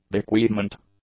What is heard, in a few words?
"bequeathment", English